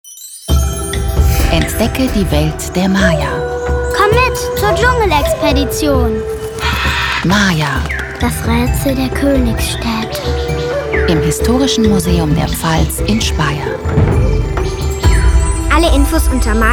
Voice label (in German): Funkspot